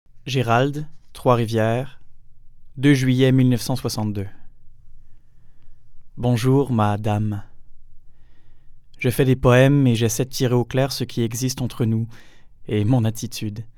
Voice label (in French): Lecture